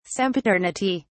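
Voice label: "sempiternity", English, British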